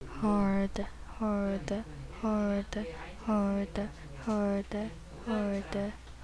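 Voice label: "hold", spoken word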